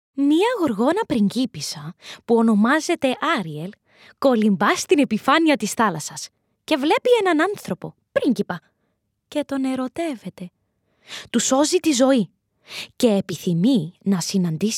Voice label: Greek Narration Kids Book

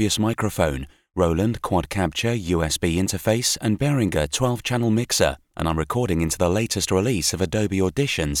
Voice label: Home Studio

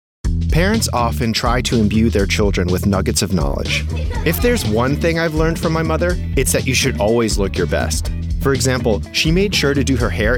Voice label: Narration

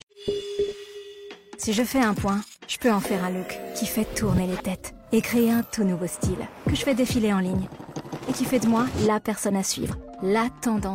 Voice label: COMMERCIAL French

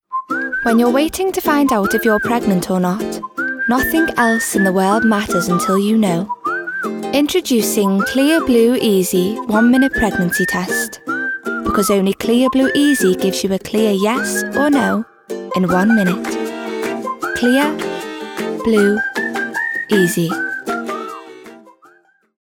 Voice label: India Commercial

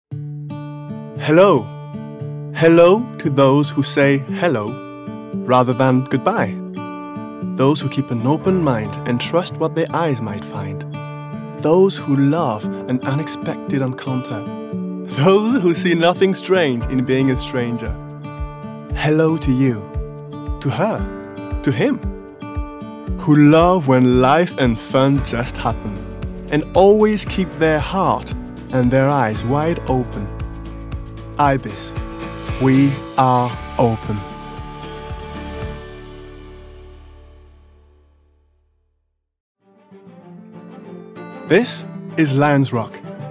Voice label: English Reel

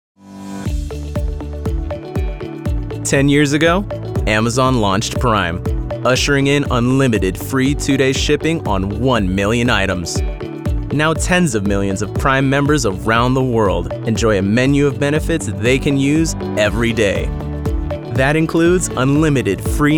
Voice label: Narrative

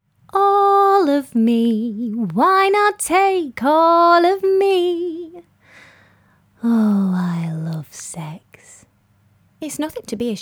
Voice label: Comedy; Studs

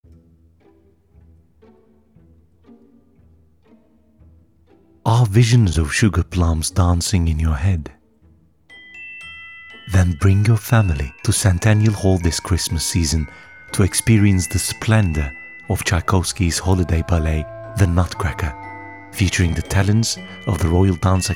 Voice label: Promo

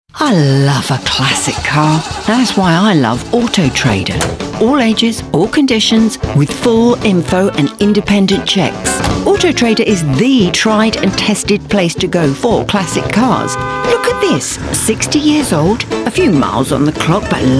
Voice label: Commercial; Auto Trader

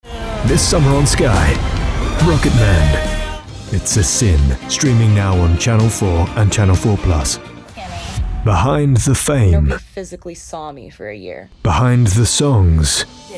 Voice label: TV PROMO

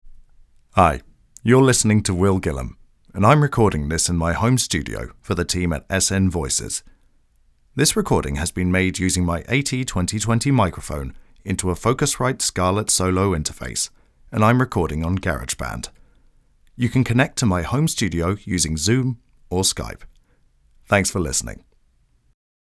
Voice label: home studio test

